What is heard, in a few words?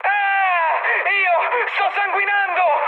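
Italian damaged pilot mood high, speech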